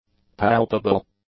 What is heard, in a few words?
"palpable", English; adjectives